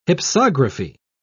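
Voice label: "hypsography", British English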